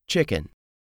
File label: "CHICKEN", English; 3000 words